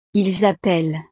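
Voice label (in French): "ils appellent", French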